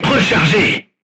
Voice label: voice message reloading, crew tanks french